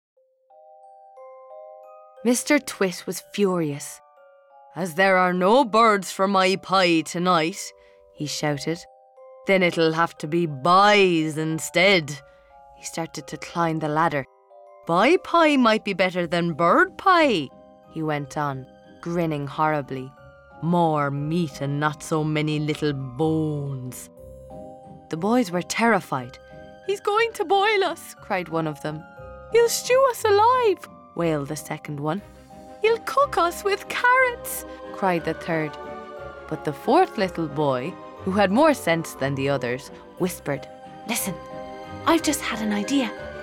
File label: Narration